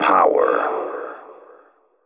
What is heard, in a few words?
techno male 140; Vocals